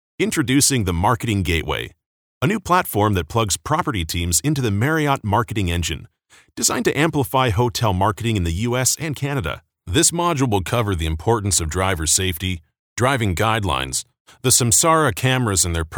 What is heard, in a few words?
e-learning